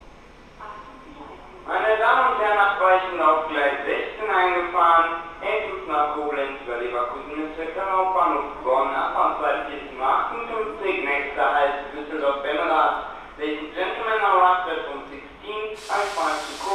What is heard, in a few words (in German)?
auf Gleis 6 ist eingefahren, Ansagen